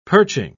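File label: "perching", British English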